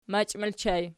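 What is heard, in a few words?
"machi", ergative plural, Archi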